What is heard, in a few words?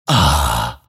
happy; Dota 2; grimstroke